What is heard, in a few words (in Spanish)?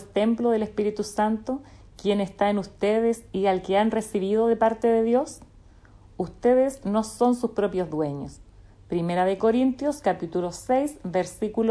devocional